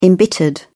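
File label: "embittered", British English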